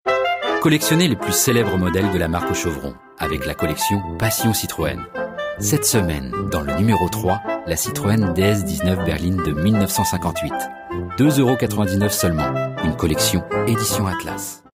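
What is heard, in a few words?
French, male, commercial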